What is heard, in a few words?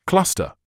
"cluster", medical english uk; ˈklʌs.tə; KLUSS-tuh